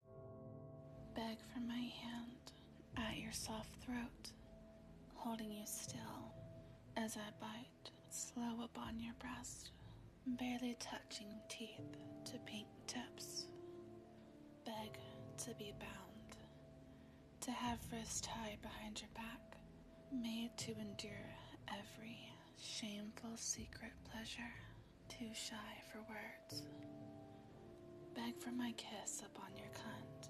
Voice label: erotic poetry, domme; F4F; Beg to Ride